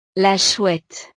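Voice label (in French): "la chouette", French; la lechuza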